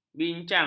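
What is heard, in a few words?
"bincang", Malay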